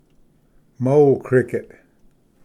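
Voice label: "mole cricket", English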